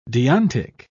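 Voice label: "deontic", British English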